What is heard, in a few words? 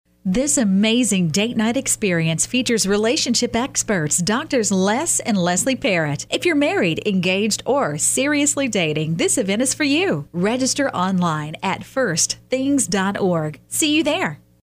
Radio people audio sample